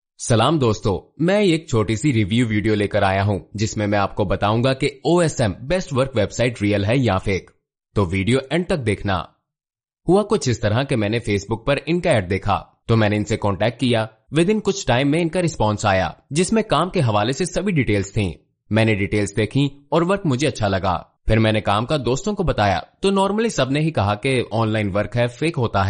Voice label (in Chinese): 乌尔都语男 外语 小语种 样音